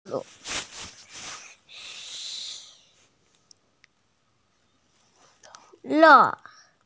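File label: "le4", Mandarin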